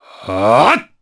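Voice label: Vox Casting, Japanese; kingsraid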